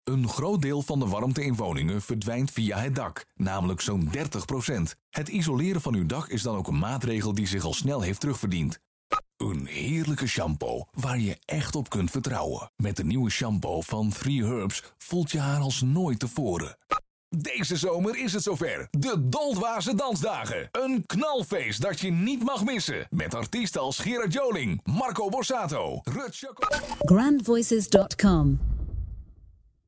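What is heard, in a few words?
Dutch male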